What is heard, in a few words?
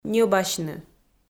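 "ньӧбасьны", Komi, female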